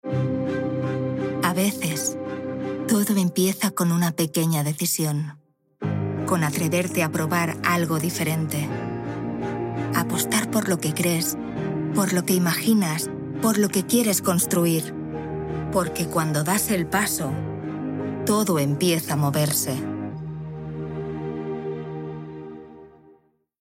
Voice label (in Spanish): INSPIRATIONAL ESP